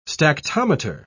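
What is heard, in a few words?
"stactometer", British English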